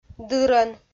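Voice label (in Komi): "дырӧн", Komi, female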